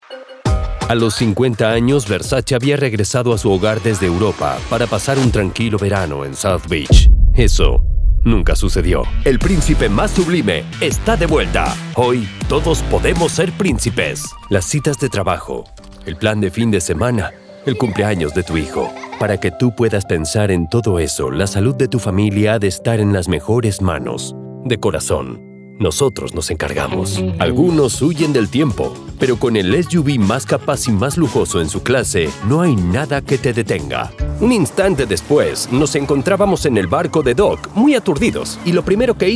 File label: SPANISH LA MALE